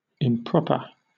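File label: "improper", British English; pronunciations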